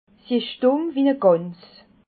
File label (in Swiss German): "dumm", Alsace